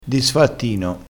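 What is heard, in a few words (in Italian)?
"disfattino", dialettale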